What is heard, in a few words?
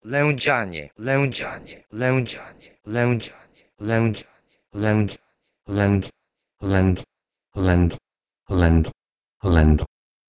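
Polish Lędzianie from Proto-Indo-European lendh; Audio derivations of English words from Proto-Indo-European; Unlike those living in fields (Polish "pole"), Lendians lived on the uncultivated heath-land.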